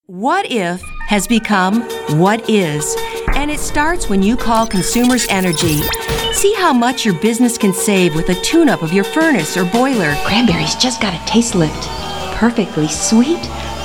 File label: COMMERCIAL VOICE OVER